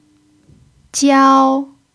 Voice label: "jiao1", chinese pinyin